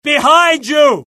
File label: alert, UK; mohaa; dialogue